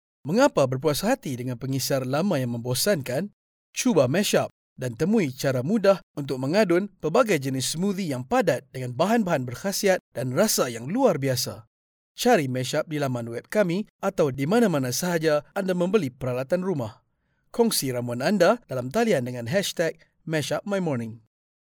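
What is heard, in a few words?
Malay Malaysia male voice over, sales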